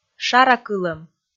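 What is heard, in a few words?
"шаракылӧм", Komi, female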